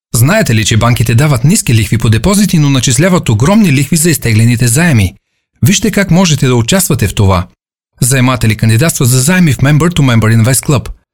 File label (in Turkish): Bulgarca Erkek, seslendirme